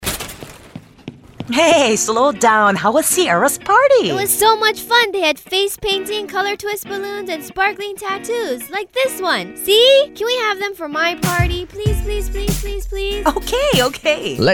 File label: radio spot; Color Whims Hawaii Party Entertainers; Face Painting; Face Painting Balloons Party Entertainers